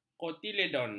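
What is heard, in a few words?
"kotiledon", Malay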